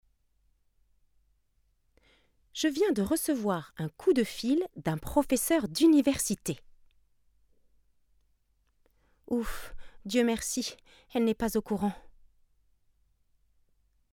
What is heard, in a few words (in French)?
robots fr; marmite